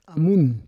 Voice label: "amun", Roon